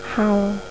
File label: "HAO", Isaan; เฮา; We